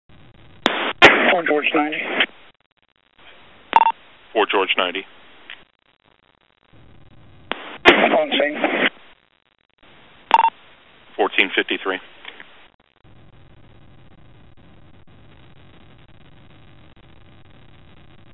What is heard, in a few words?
radio; Medical; Coburn On Scene